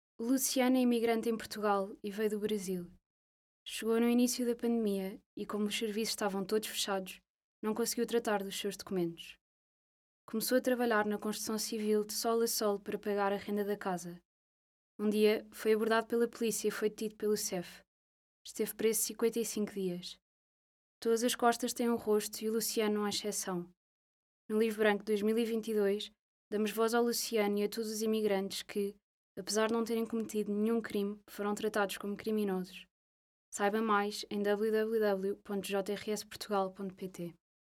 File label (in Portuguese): LOCUCAO